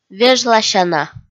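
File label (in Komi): "вежласяна", Komi, female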